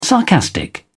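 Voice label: "sarcastic", British English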